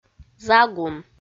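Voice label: "загун", Komi, female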